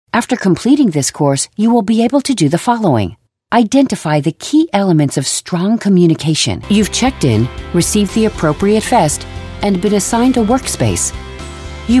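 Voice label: American English elearning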